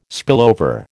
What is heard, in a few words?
"spillover", American